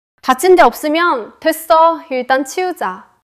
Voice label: neutral, monologue

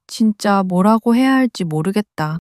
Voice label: sadness, monologue

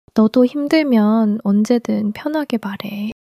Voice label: sadness

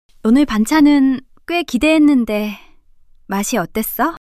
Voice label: shy; monologue

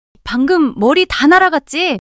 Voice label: surprise, monologue

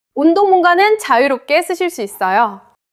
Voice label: neutral, monologue

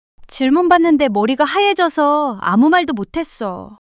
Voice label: fluster; monologue

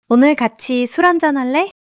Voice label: joy

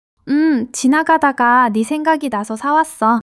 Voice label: joy; monologue

